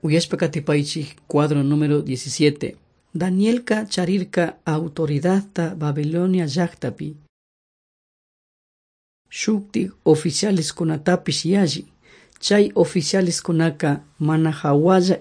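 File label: quichua chimborazo, drama; on trial for god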